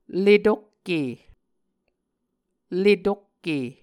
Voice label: "letokke", Muscogee; Mvskoke Words with Repeated Consonants; A Dictionary of Creek/Muskogee; SIL International